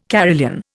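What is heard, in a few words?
"carillon", Indian English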